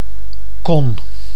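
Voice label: "kon", Dutch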